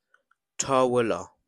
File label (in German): Arabisch Tisch